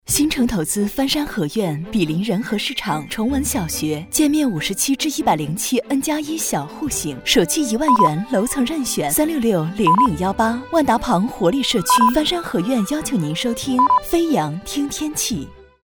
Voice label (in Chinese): 女 广告; 翻山和院